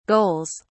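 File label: "goals", British English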